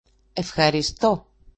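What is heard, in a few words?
"efcharistó", Greek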